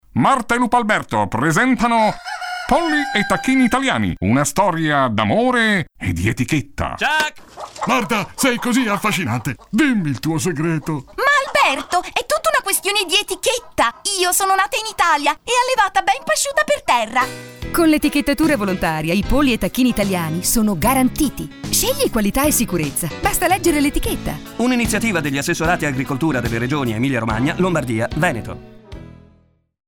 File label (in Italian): Spot radio; Carni Avicole Lupo Alberto